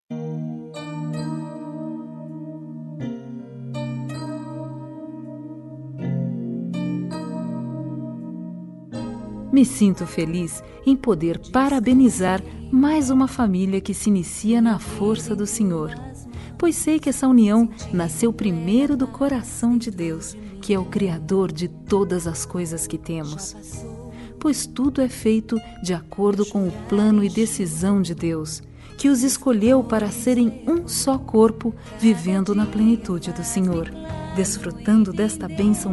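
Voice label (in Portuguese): Evangélica Parabens Pelo Casamento, female, telemensagens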